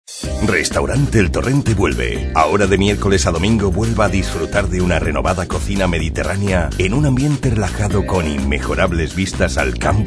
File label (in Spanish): HOMBRE